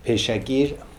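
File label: "Paischakir", pronunciations; Fourth Way; Beelzebub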